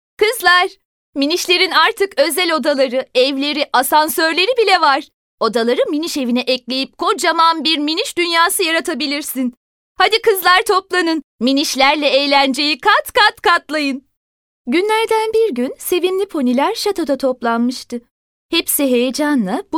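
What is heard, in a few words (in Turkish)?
kız çocuk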